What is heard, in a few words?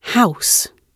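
"house", British English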